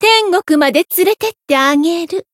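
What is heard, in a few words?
Voice encount Japanese; sherinu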